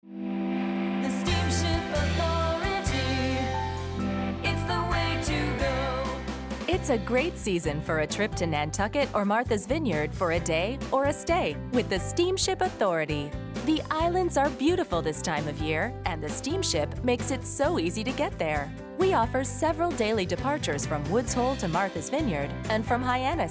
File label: RADIO; SEASONAL